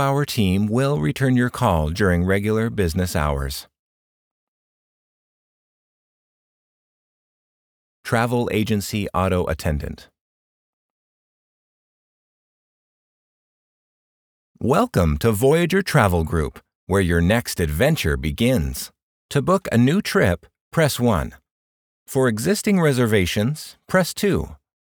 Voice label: Technical Support Queue Message Clear Empathet